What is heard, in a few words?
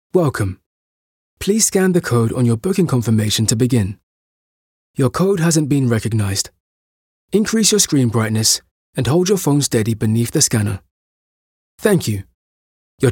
system announcements